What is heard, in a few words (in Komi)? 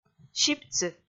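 "щипцы", Komi, female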